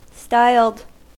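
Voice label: "styled", US English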